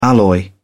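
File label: "alloy", British English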